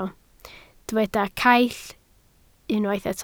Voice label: "caill", Welsh